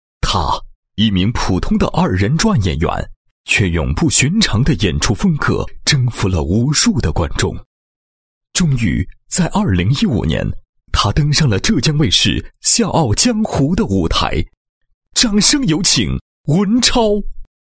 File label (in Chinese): 男 人物 介绍词; 搞笑达人 二人转演员